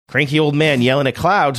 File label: cranky old man yelling at clouds